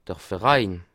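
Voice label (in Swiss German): "verein", Bas Rhin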